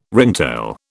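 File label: "ringtail", British English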